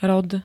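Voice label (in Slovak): "rod", slovenské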